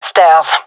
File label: loc stairs, gendermerie fem; vo